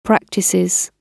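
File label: "practises", British English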